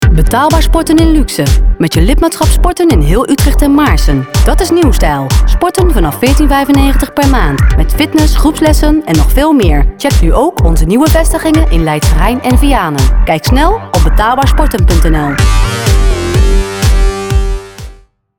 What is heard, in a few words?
RADIO COMMERCIAL